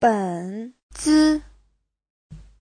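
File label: "běnzi", Chinese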